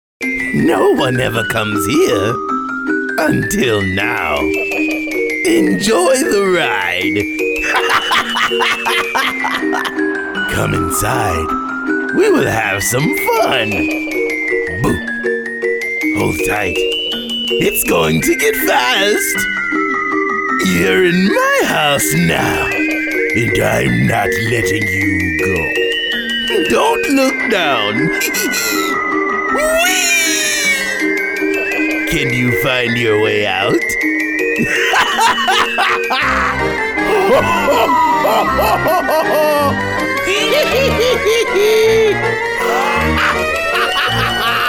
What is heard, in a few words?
Animation